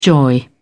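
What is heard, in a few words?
"joy", British English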